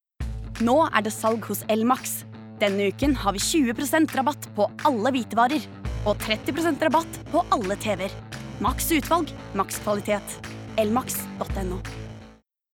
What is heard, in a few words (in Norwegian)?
no reklame selgende